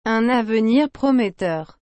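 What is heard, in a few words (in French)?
"Un avenir prometteur", French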